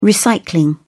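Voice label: "recycling", British English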